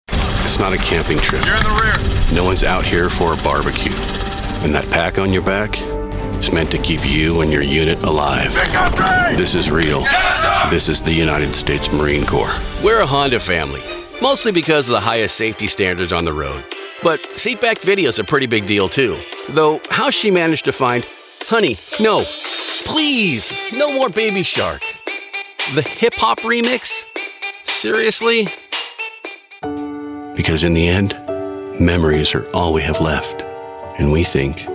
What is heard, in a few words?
Commercial, Voice Over